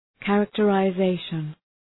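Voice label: "characterization", English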